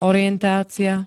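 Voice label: "orientácia", Slovak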